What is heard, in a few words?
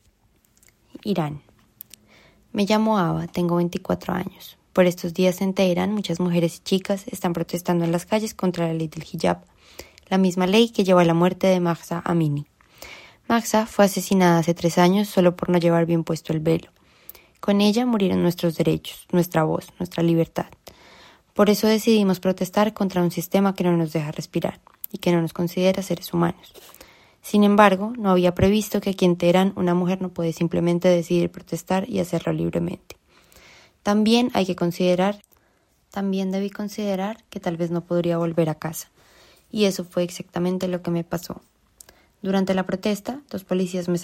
Iran Spanish, Experiencia personal